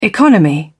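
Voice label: "economy", British English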